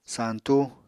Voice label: "santú", Apatani